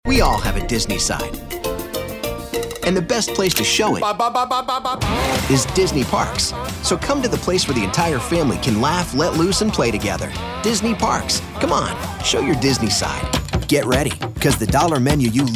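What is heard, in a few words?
commercial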